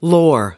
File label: "lore", us